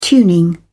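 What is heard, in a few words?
"tuning", British English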